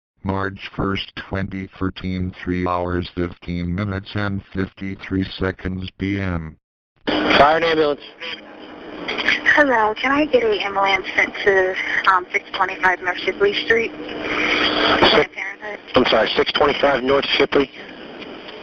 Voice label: 911 Call; Wilmington